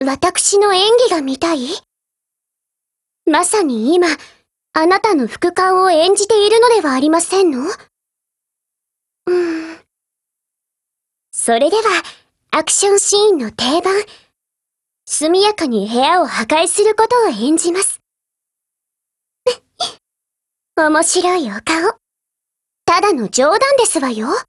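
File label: DIALOGUE, Japanese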